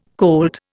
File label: "colt", Indian